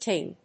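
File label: "ting", English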